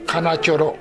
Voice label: "kanachoro", minami yamagata

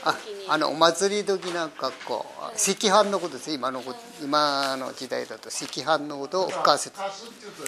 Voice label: fukasu, minami yamagata

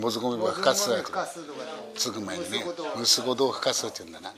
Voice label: fukasu, minami yamagata

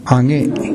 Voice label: "age", minami yamagata

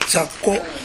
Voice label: "zakko", minami yamagata

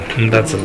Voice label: "ndazu", minami yamagata

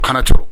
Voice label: "kanachoro", minami yamagata

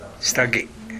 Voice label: "sitage", minami yamagata

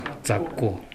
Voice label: "zakko", minami yamagata

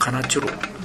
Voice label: "kanachoro", minami yamagata

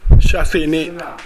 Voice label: "shasue", minami yamagata

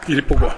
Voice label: "irepoko", minami yamagata

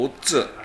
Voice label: "ottu", minami yamagata

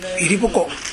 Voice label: "irepoko", minami yamagata

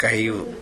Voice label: "gaeu", minami yamagata

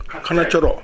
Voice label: "kanachoro", minami yamagata

